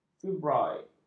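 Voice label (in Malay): "fibroid", Malay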